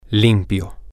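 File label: clean, Spanish, male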